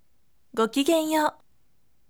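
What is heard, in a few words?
voice, firm; gokigenyou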